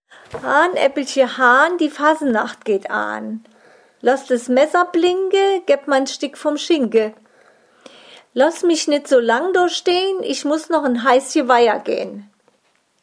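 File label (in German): kinderreim hahn aebbelche hahn, O-Ton Hunsrück; Dichtelbach; Kinderreim: Hahn Äbbelche Hahn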